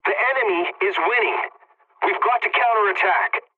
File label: pilot tickets lead continue enemy, english; War Thunder